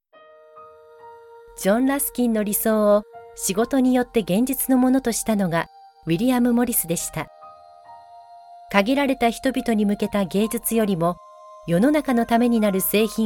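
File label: Japanese, female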